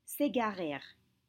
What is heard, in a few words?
"ségarèrent", French